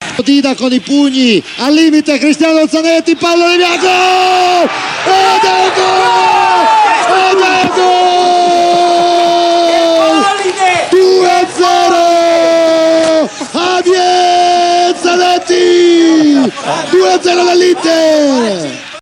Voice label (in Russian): kommentatory gol